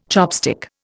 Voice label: "chopstick", Indian

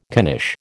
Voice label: "knish", American

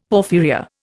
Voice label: "porphyria", Indian; Dictionary

